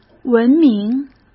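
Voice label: "文明", Mandarin Chinese; chinese-flashcards; hsk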